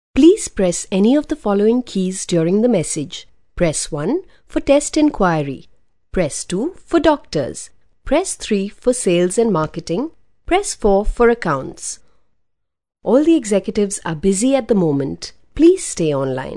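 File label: English Indian Female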